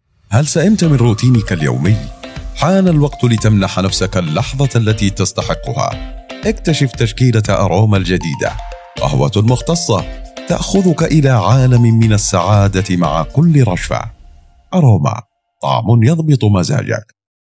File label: voiceover